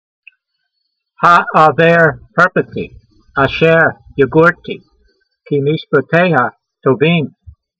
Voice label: voice, hebrew language; Psalm 119:39; ha'ahvayr herpatee ah'sheyr yagortee, kee meeshpateyha toveem